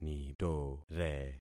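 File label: "nitore", concatenated syllables; Affixing experiment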